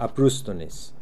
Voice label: "Abrustdonis", Beelzebub pronounciations; Fourth Way